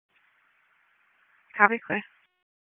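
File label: Public Safety Tactical, p25; wake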